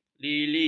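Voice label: "lily", Malay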